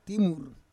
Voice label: "timur", Roon